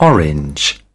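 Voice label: "orange", British English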